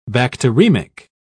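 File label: "bacteremic", British English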